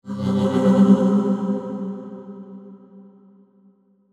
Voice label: angry, the audio I created for the characters to signify they are speaking